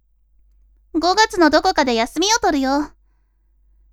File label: women